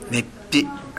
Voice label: "neppi", minamiyamagata